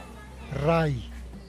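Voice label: "rai", Teotitlán del Valle Zapotec; root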